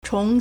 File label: "chóng", Chinese